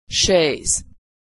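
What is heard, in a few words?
"chaise", American English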